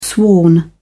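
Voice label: "sworn", British English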